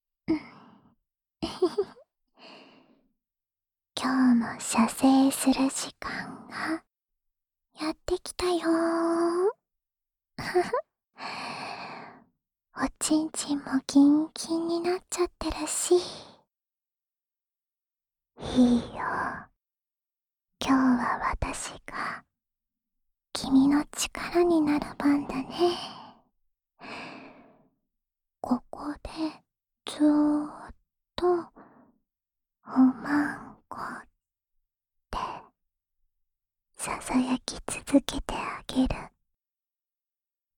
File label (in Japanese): オナサポ用 おま○こ ボイス ループ（左耳）; オナサポ用おま○こボイスループ（左耳）